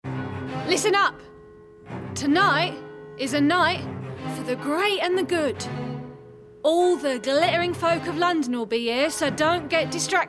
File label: Video Game Gang Leader